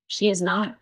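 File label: Voice Acting es, female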